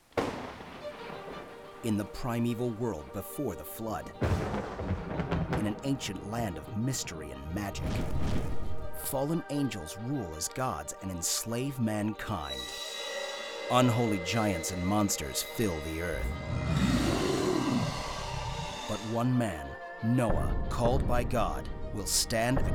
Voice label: Commercial; Noah